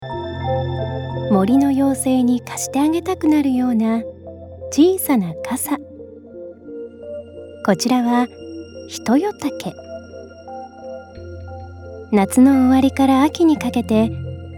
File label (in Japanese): ナレーション